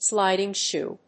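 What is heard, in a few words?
"sliding shoe", English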